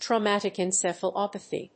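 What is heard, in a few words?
"Traumatic Encephalopathy", English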